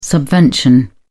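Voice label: "subvention", British English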